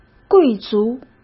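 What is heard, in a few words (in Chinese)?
"贵族", Mandarin Chinese; hsk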